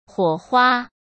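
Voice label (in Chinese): "火花", Mandarin Chinese; chinese-flashcards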